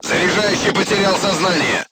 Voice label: voice message charger contused, crew tanks russian